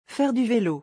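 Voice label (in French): "Faire du velo", French; vocabulaire; le lexique des vacances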